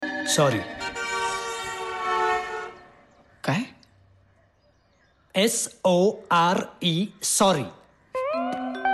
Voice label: Background Music Dialogue Marathi Ringtone